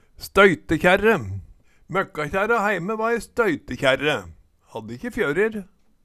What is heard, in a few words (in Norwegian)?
støytekjerre, Numedalsmål